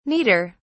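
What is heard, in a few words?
"neater", British English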